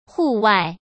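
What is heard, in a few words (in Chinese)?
"户外", Mandarin Chinese; hsk; chinese-flashcards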